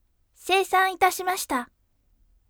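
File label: voice seisan itashimashita bright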